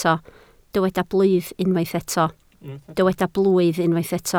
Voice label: "blwydd", Welsh